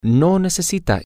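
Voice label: you need no, Spanish, male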